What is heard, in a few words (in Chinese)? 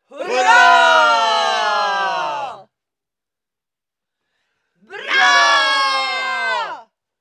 拟声Heeej(瑞典语); renlei